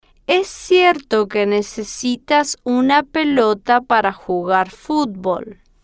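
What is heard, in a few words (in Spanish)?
es cierto que necesitas una pelota para jugar futbol, Spanish, female, slow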